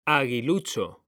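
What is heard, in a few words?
"aguilucho", Spanish, male; mekanta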